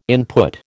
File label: "input", American